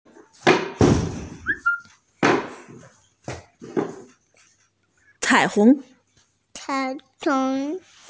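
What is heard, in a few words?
"cai3hong2", Mandarin Chinese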